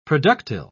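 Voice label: "productile", English, British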